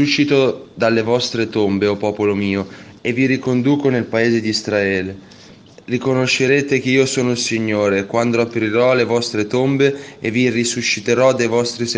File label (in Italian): Lettura Breve Lodi e Prima Lettura S. Messa